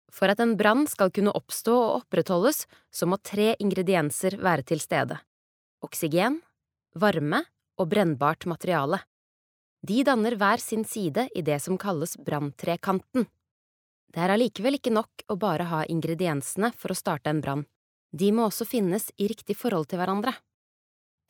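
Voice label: Norwegian elearning, norsk, kvinne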